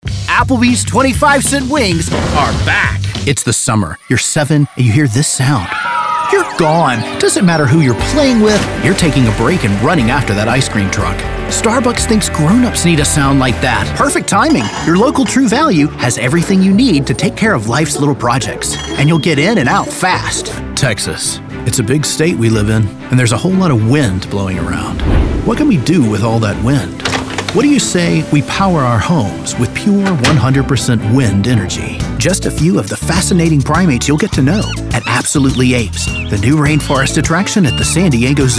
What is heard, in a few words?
COMMERCIAL